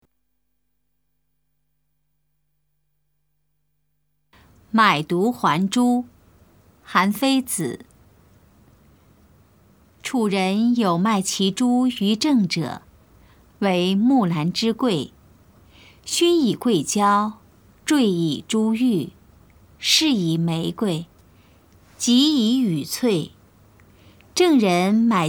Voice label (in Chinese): putonghua; 買櫝還珠; 普通話